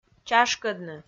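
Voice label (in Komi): "чашкӧдны", Komi, female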